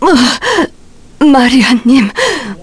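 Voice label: Vox Dead, Korean; Morrah; kingsraid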